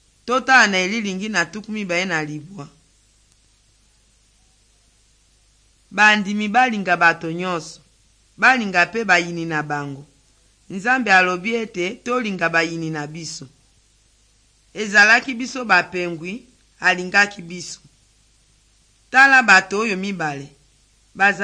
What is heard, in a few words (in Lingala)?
good news lingala west, drama